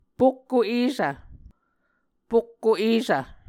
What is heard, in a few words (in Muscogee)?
"pokko esv", Muscogee; Mvskoke Words with Repeated Consonants; Onvkv Ohhvyayices; A Dictionary of Creek/Muskogee; SIL International